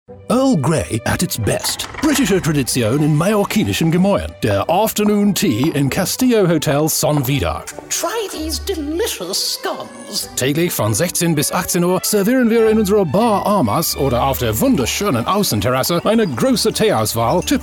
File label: Commercial UK-DE